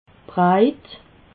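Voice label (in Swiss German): "breit", Alsatian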